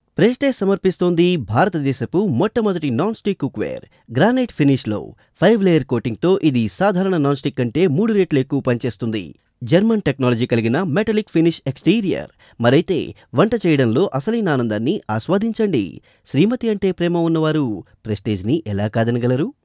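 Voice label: promo telugu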